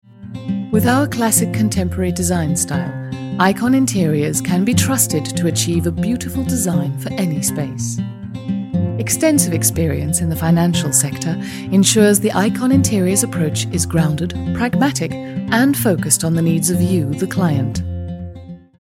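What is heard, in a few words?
British corporate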